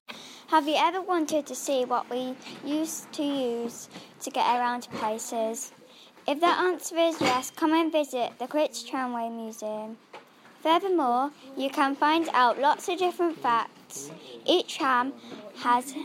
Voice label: advert; crich tramway museum

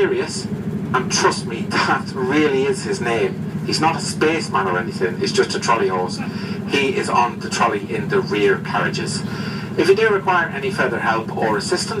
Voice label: enjoyable train announcement

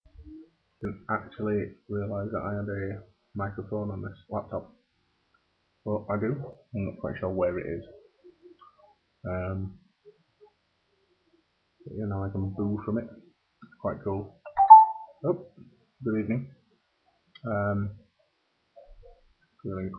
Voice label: mumbly nighttime

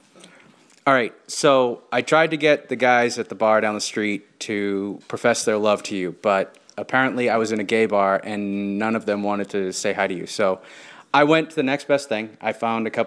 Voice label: boston accent

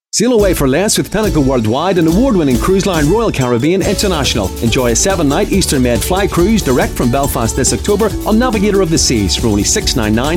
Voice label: radio advert; pinnacle worldwide royal caribbean cruise; on u105 8fm

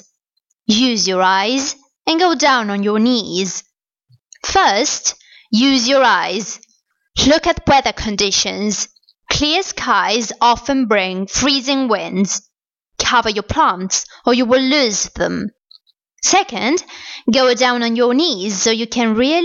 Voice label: dialogue advice for gardeners

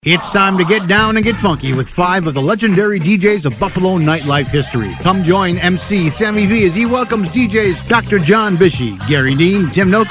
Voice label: dance radio promo; legends of disco

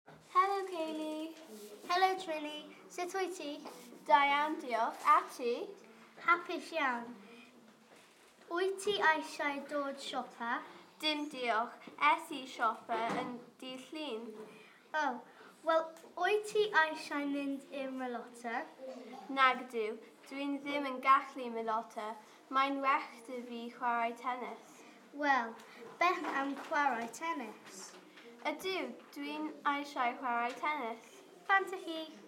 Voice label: welsh conversation; hamdden

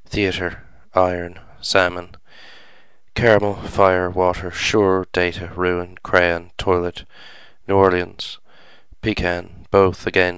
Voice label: regional dialect

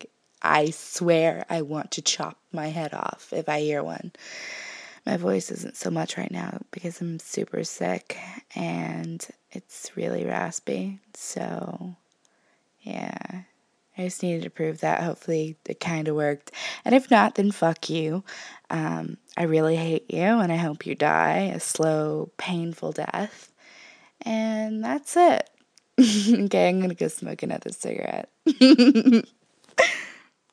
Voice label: american accents

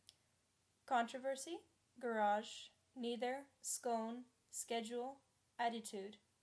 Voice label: Vancouver BC female

